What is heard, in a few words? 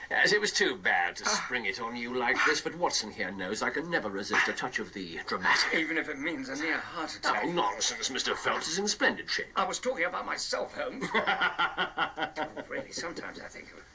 Dramatic Heart Attack; radio